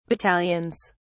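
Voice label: "battalions", British English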